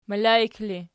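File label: "melaik", ergative, Archi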